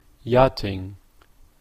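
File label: "yachting", Canadian English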